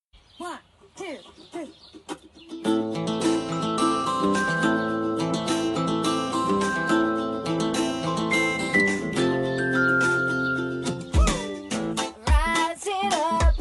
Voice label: romatica masc, Telemensagem Romântica, Voz Masculina

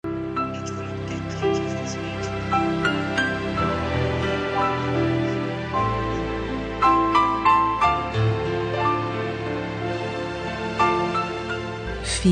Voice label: gestante mae enviando fem, Voz Feminina; Telemensagem para Gestante – Mãe enviando para Filha; Telemensagens para todas as ocasiões

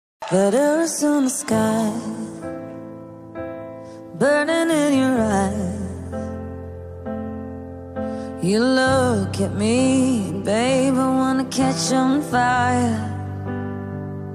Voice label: ficante, Telemensagem Ficante – Voz Masculina

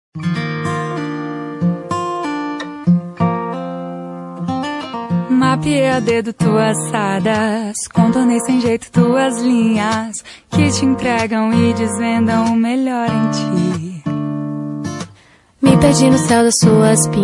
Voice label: romant masc

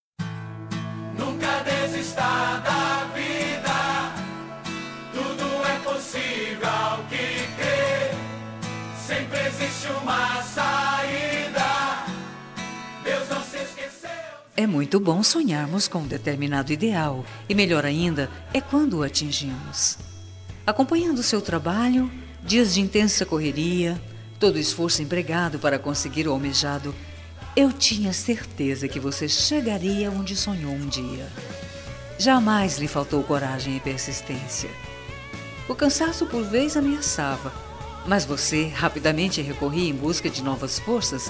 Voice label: vitoria fem, Voz Feminina; Homenagens; Telemensagem Conquista Vitória - Voz Feminina

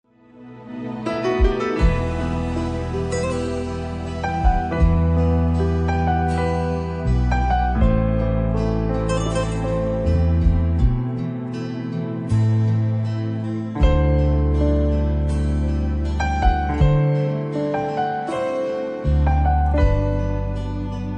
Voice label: infantil avo fem, Aniversário Voz Infantil Avô – Voz Feminina

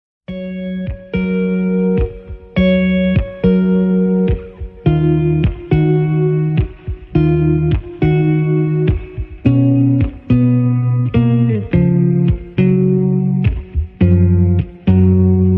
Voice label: inicio masc, suave voz masculina; Dia dos Namorados

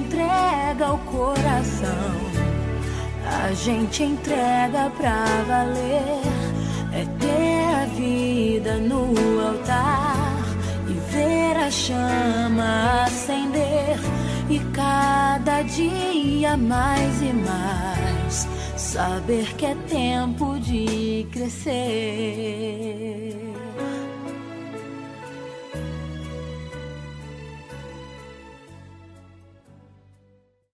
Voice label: aniv amiga masc gospel, Aniversário de Amiga Gospel – Voz Masculina; Telemensagens para todas as ocasiões

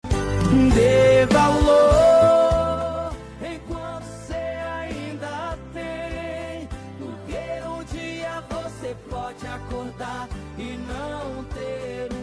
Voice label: masc, Dia dos Pais – Voz Masculina

